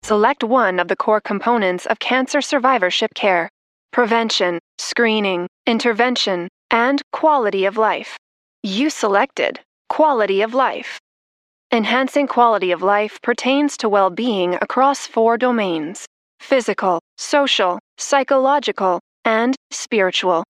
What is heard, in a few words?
Professional Corporate Medical Encylcopedia; eLearning Voice Over; Formal, Factual - Medical